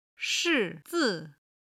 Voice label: "shi4 zi5", chinese